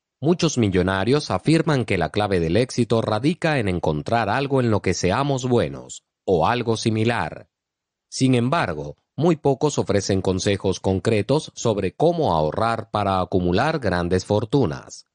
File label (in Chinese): 西班牙男声